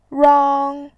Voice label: "rang1", voice